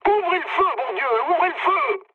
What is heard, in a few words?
attack position mood med, speech fr; War Thunder